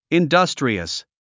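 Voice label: "industrious", English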